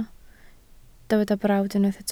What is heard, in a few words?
"brawd", Welsh